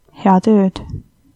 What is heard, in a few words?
goodnight, Estonian